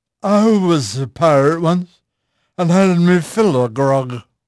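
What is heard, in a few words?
English male; Pirates Of The Caribbean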